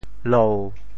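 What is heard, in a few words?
"lou7", Teochew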